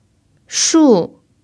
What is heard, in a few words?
"shu4", Chinese